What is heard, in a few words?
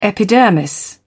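"epidermis", British English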